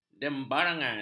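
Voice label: "dembarangan", Malay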